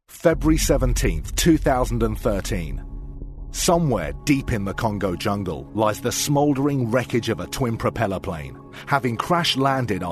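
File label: English, British, male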